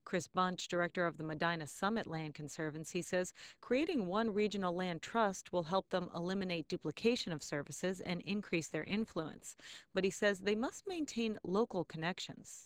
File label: Narrator